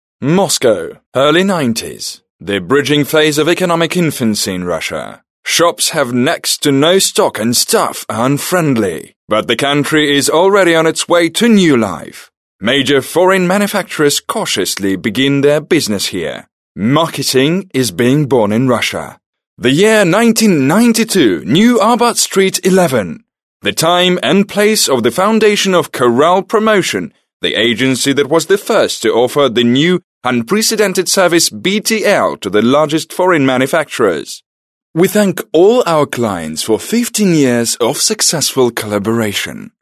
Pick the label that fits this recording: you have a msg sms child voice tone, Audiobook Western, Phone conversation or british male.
british male